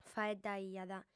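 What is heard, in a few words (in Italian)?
"faeddaiat", lingua sarda, female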